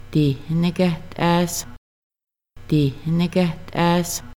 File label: Making Bannock They 3 are frying chicken, Kaska; Rerecording